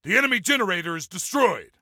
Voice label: Enemy Generator, Soldier Male; Soldier Male 03